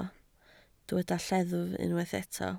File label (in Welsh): "lleddf" Welsh